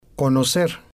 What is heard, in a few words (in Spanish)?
"conocer", Spanish